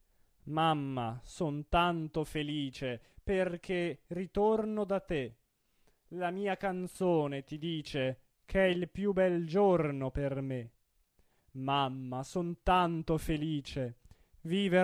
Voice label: espressivo

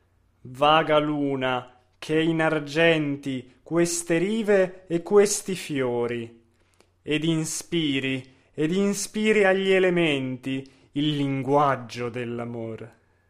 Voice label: espressivo; Bellini

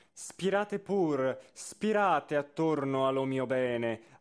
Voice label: espressivo; Donaudy

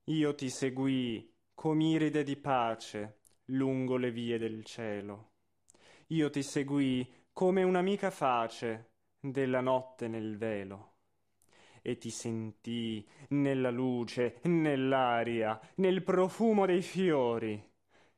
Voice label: espressivo

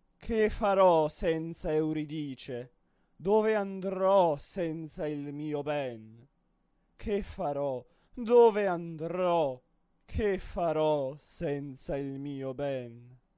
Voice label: espressivo; Che faro senza euridice

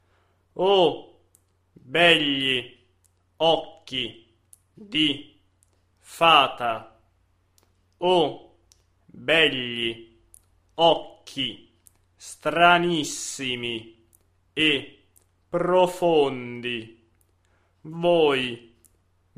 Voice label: monotono; Denza

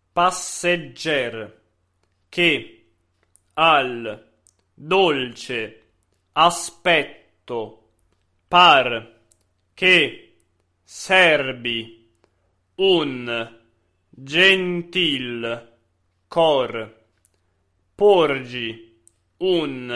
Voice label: monotono

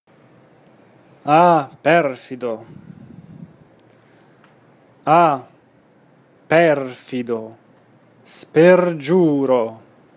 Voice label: monotono; Beethoven; Ah perfido

